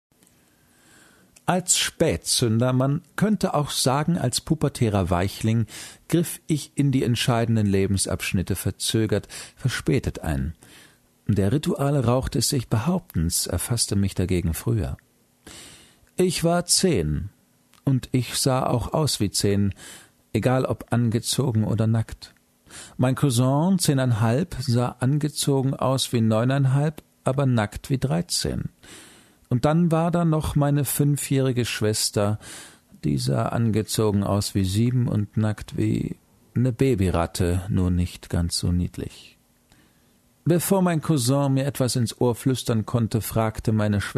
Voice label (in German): Hoerbuch; Liebesrausch